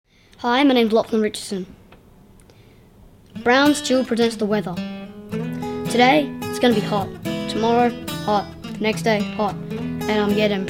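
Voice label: Voice Over; Actor, Voiceover; Location Perth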